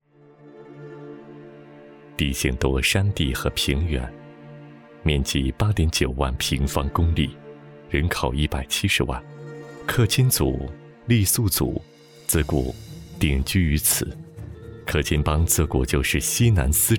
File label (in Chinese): 纪录片; 松号